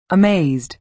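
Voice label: "amazed", American English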